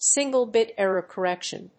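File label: "single bit error correction", English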